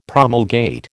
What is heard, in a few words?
"promulgate", American